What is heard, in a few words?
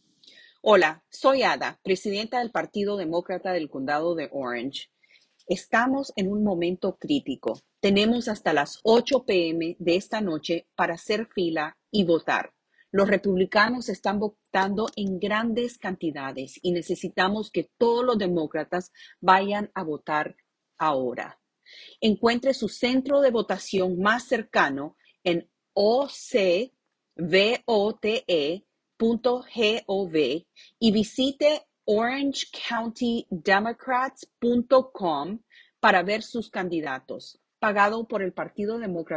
Spanish